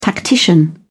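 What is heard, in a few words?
"tactician", British English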